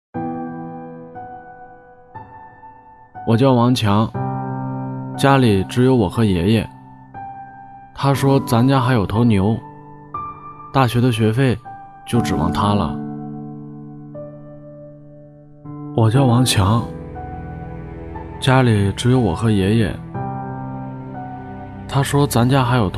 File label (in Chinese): 角色 留守 大学生